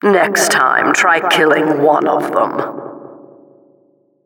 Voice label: flawless defeat, Announcer; "В следющий раз попробуйте убить хоть одного из них."